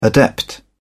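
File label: "adept", British English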